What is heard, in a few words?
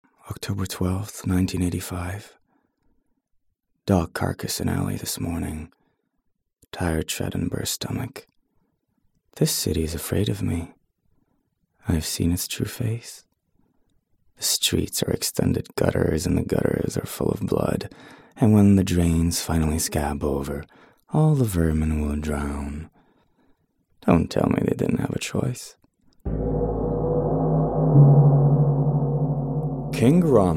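Italian Accented English; voice demos; GoLocalise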